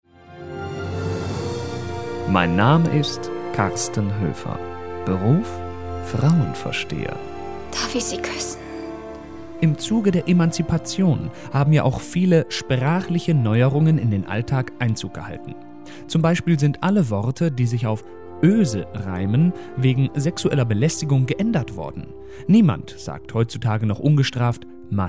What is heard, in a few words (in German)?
radio am sprache